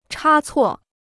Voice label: "差错", Chinese

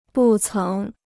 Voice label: "不曾", Chinese

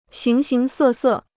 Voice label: "形形色色", Chinese

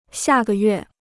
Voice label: "下个月", Chinese

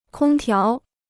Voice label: "空调", Chinese

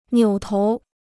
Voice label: "扭头", Chinese

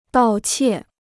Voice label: "盗窃", Chinese

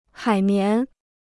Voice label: "海绵", Chinese

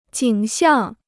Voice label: "景象", Chinese

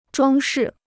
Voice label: "装饰", Chinese

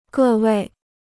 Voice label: "各位", Chinese

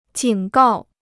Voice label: "警告", Chinese

